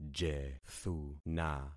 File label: "jethuna", concat syllables; Affixing experiment